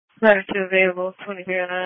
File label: Fire Tactical